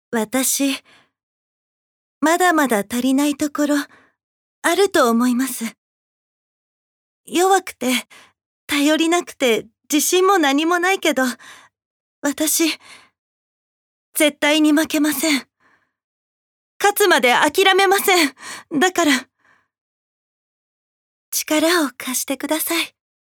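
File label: voice sample, woman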